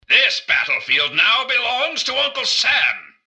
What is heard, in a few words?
generic robot lost to normal; mrgutsy; npc